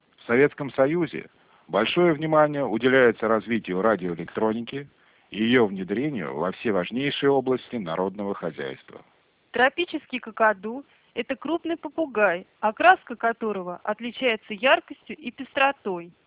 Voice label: speech G729ab